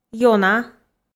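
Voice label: "ёна", Komi, female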